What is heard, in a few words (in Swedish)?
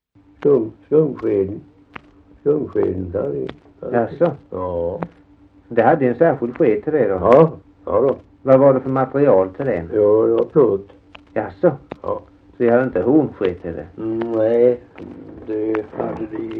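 Alfshög Halland, dialekter; Folkminnen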